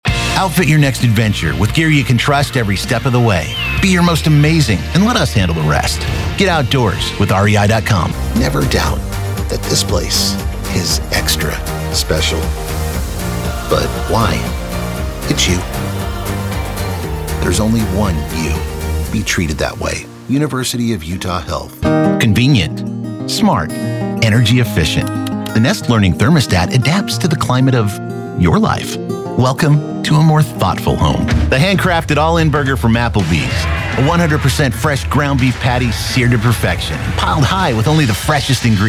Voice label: Male Voice Over Talents Commercial